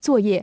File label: "作业", china